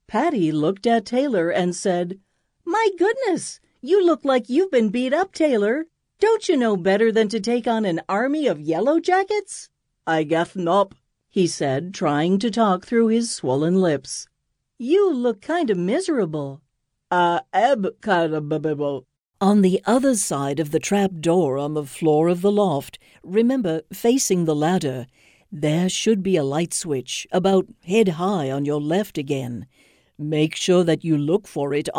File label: Audiobook